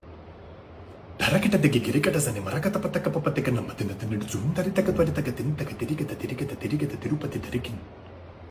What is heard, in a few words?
Telugu tabla Poem